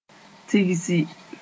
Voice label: "tigsi", Moore; reap

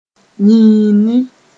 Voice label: "yĩini", Mooré

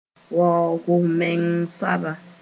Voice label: "waoog-m-meng soaba", Mooré; boaster

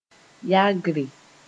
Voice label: "yagre", Moore

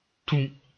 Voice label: "tũ", moore

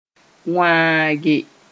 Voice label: "wãage", Mooré

